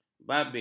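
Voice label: "babit", Malay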